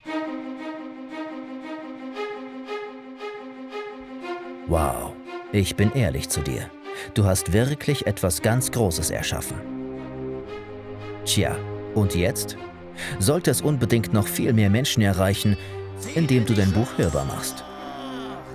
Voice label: trailer action